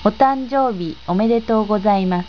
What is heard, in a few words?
"Happy Birthday", japanese